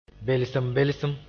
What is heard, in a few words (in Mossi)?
"belsem-belsem", moore